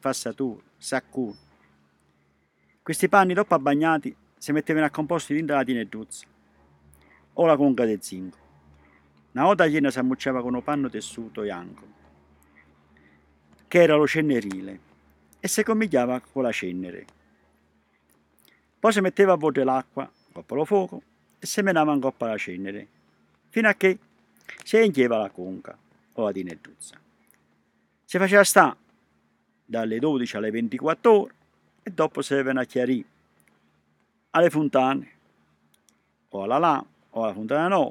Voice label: Colata dialetto

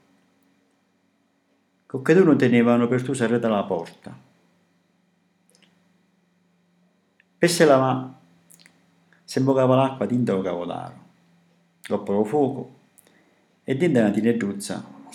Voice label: Le case de nà vota dialetto

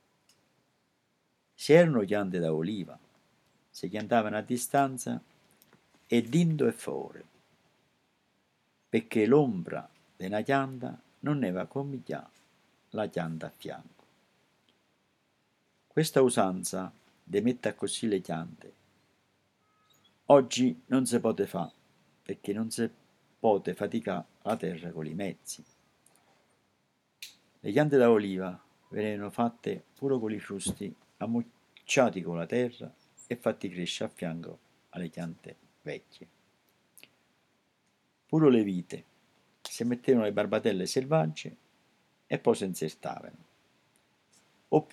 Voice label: La scatena dialetto

